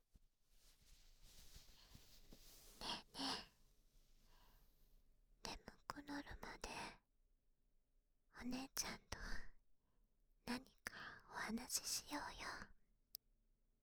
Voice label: 眠くなるまで…(囁き・右)

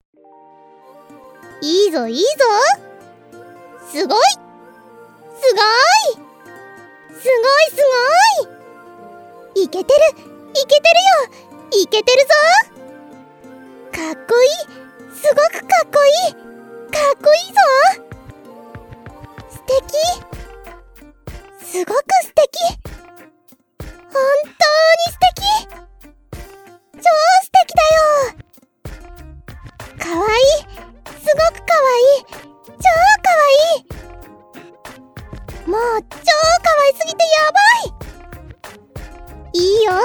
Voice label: 応援ボイス別BGM; ジブンを褒める

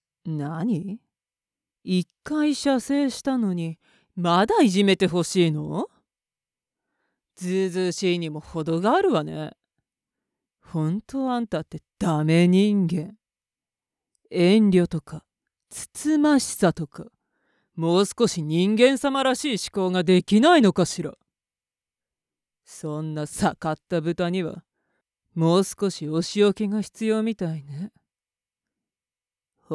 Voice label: お姉さんからの罵り

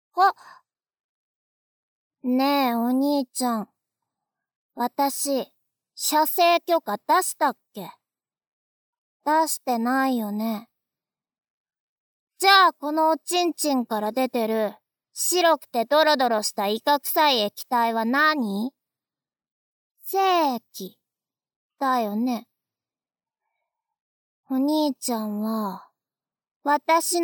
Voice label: 囁き右耳; 精液お漏らし時音声

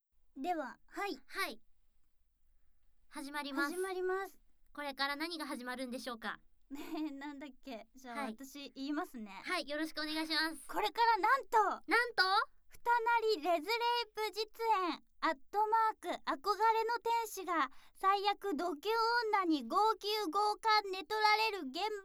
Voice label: 収録前フリートーク

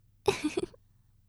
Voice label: 妹 台詞

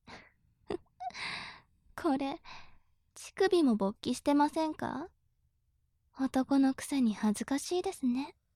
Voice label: 調教中音声, ASMR

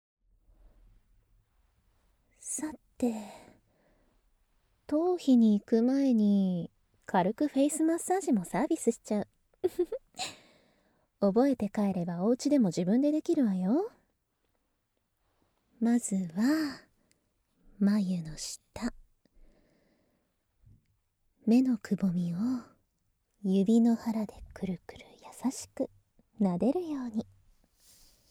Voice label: マッサージしていくよー, ASMR

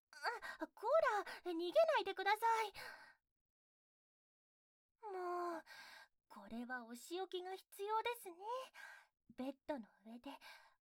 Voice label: 前戯 ＆ 耳舐め & 擦り付けエッチ

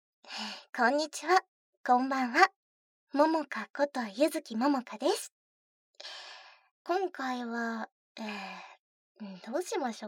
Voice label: フリートーク

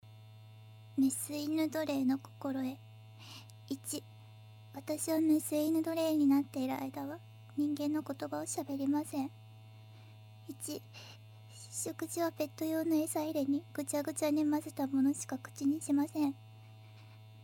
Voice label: メス犬奴隷の心得 読み上げ; 奴隷契約書・メス犬の心得

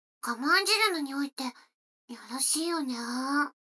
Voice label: 女の子台詞, ASMR

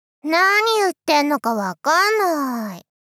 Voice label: なにゆってんのかわかんな～い！ 少女（ギャル） 中音域

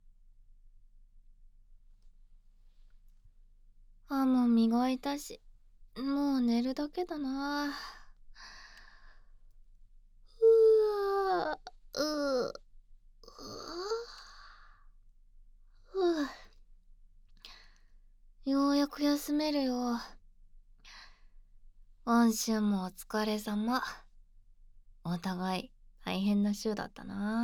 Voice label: ピロートーク

